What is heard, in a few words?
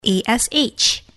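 "ash", English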